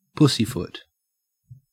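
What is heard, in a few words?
"pussyfoot", Australian English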